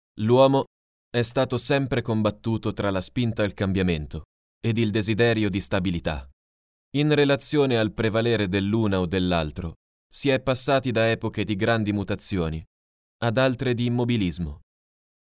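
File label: Italian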